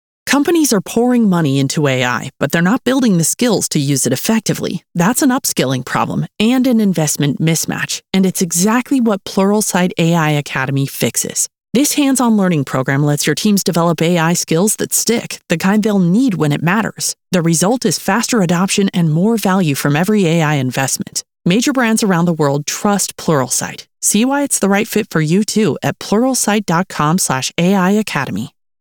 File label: Ad; Pluralsight